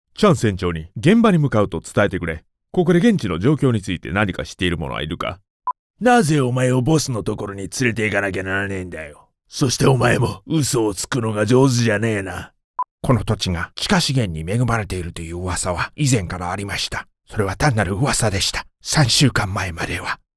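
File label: Japanese, male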